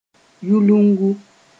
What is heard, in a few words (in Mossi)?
"yulungu", moore